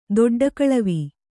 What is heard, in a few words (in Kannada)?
"ದೊಡ್ಡಕಳವಿ", Kannada; doḍḍa kaḷavi; noun; its berry; Chinese goose berry.